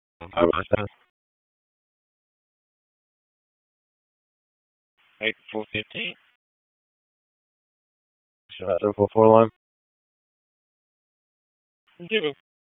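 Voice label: Police Southeast District Dispatch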